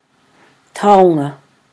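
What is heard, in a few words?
"taonga", Māori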